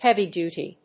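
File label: "heavy-duty", English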